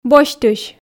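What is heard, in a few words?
"босьтысь", Komi, female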